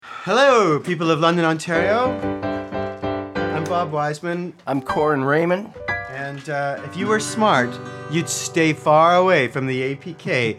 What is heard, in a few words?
radio promo